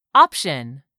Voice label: "option", English; 3000 words